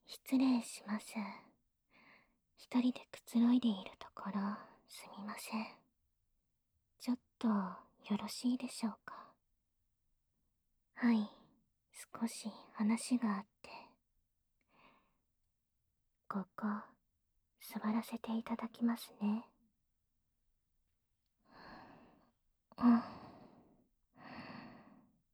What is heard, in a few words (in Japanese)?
吐息たっぷり囁き, ASMR; 姉・しずくの吐息たっぷり囁き手コキ♪; 居候先の仲良しJK姉妹を騙して媚び媚び性処理させまくる話～妹には手を出さない!という約束で姉に抜いてもらう裏で、姉には手を出さない!という約束で妹に抜いてもらう～